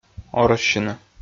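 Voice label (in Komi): "орӧссьыны", Komi, male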